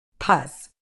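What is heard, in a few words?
"pus", medical English, British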